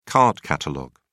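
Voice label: "card catalogue", British English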